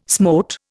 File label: "smote", Indian